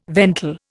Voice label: "ventil", Indian